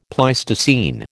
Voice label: "Pleistocene", American

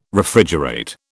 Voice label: "Refrigerate", British

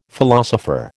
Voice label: "philosopher", American

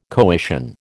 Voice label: "coition", American

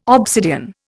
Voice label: "obsidian", Indian

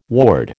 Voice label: "ward", American

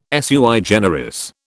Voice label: "sui generis", British

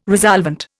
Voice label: "resolvent", Indian

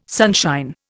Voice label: "sunshine", Indian

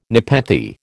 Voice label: "nepenthe", American

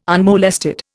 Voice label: "unmolested", Indian

